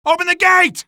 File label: open gate, Voice Lines, male; Half-Life 2; streetwar; barricade